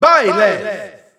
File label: Spanish EU Announcer; Byleth; SSBU